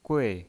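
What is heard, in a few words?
"gui", Mandarin Chinese, fourth tone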